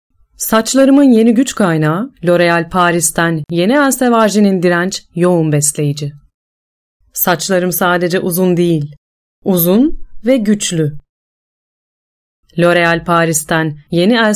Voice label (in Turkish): Turkish Ad, female; LOREAL